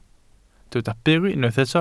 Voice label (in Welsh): "byr", Welsh